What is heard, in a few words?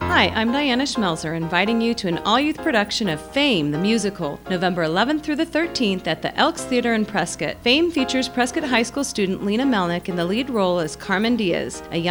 radio spot